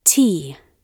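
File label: "tea", British English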